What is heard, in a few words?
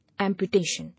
"Amputation", English